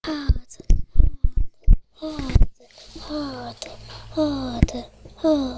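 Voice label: "hold", spoken word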